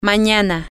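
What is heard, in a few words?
Spanish, tomorrow, female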